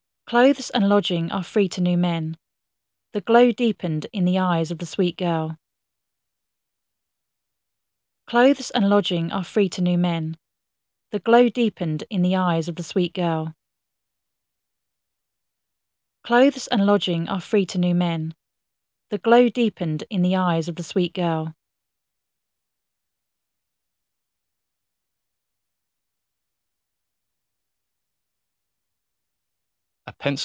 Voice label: aec in far, acoustic echo cancellation; esp32s3